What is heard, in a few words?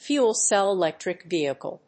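"Fuel cell electric vehicle", English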